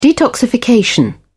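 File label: "detoxification", British English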